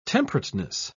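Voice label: "temperateness", British English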